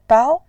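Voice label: "bao", Mandarin Chinese, tone 2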